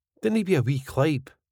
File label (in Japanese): Dinnae be a wee clipe スコットランド英語